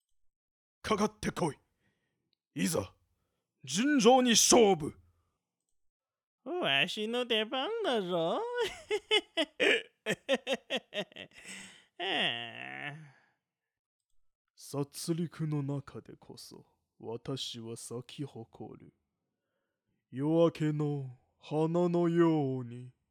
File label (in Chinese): 日语角色